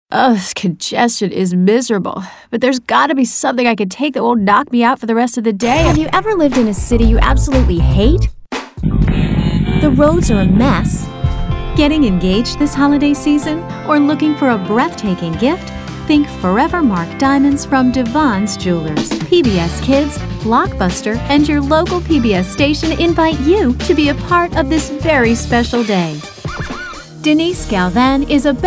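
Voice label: english british female; dictor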